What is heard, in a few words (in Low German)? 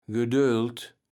"Gedöld", Platt